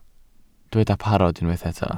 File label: "parod", Welsh